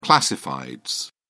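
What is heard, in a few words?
"classifieds", English, uk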